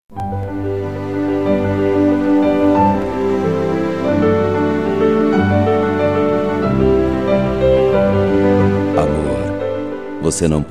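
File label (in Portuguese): Namorados Tenho Medo de Perder Voce, male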